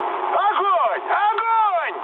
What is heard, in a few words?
attack position, mood med, speech ru; War Thunder